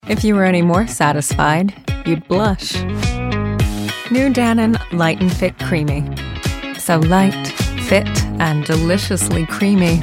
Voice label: american voice commercial